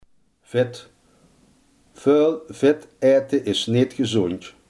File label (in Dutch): "vet", limburgse